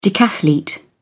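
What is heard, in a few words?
"decathlete", English, British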